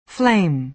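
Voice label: "flame", American English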